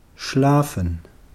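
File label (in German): "schlafen", German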